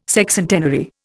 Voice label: "Sexcentenary", Indian

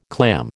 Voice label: "clam", American

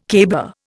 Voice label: "caber", Indian

